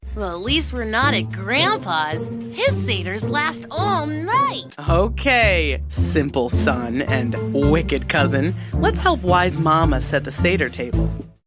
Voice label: boy voice mom voice family friendly educational programming adolescent boy; kid voice